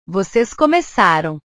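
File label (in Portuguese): "vocês começaram", Portuguese; portugues/verbos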